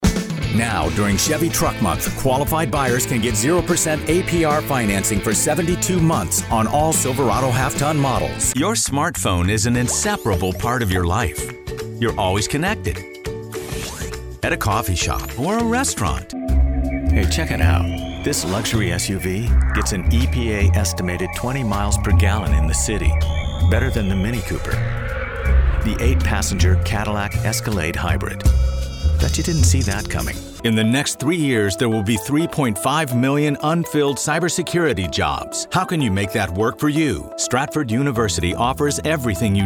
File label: voice Commercial, male